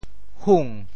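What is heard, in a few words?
"hung2", Teochew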